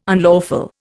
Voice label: "unlawful", Indian